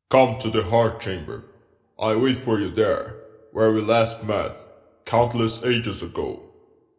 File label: reverb, unique voice auditions; dagoth ur